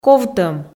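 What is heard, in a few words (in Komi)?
"ковтӧм", Komi, female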